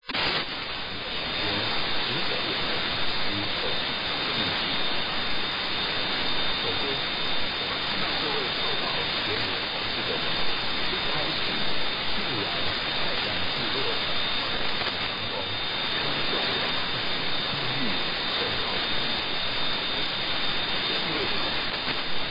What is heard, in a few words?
9.600 MHz Vatican R